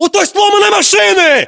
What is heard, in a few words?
landmark near car wrecked, ru; localized english